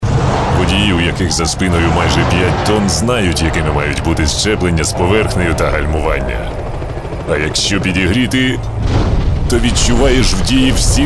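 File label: Ukrainian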